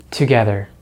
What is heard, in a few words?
"together", American English; easypronunciation